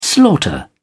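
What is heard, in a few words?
"slaughter", British English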